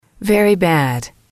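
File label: very bad, English, female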